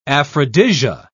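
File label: "aphrodisia", British English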